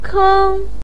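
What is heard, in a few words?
"keng", Mandarin pinyin, tone 1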